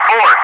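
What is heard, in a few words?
"4", English speech, Wingman; DCS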